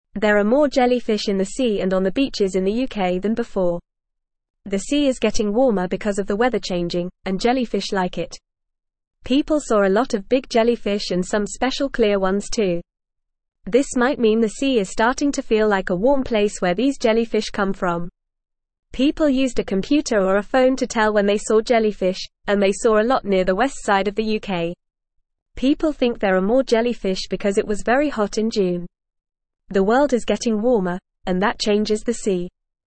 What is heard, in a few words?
English Newsroom Beginner FAST Reading; More Jellyfish Seen in UK Because of Warm Sea